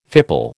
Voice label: "fipple", American